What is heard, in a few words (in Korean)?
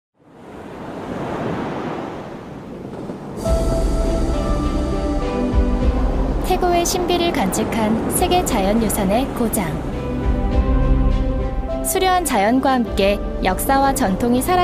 홍보 차분한 일반인 20대; 제주성산읍